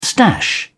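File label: "stash", British English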